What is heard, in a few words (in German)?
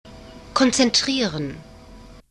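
"konzentrieren", German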